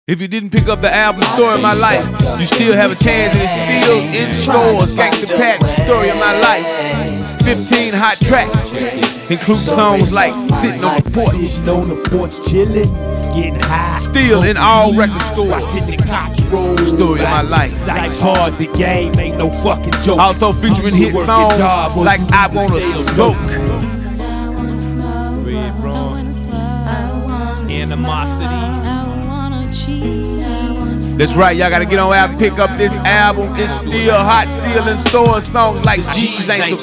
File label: Commercial